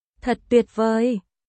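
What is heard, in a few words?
Vietnamese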